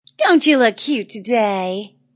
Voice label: Wmn Sys Dnt U Lk Ct Patr, Woman Says Don't You Look Patronizing; Talking